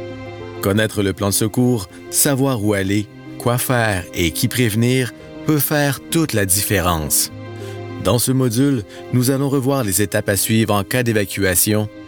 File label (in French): formation urgence, voix-off